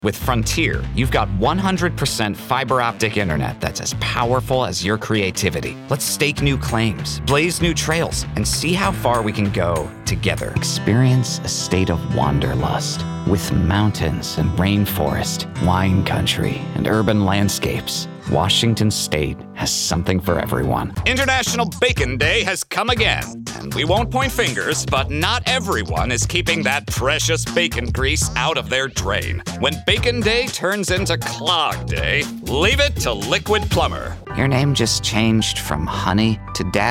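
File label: Commercial, Voice Actor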